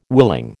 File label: "willing", American